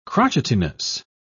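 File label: "crotchetiness", British English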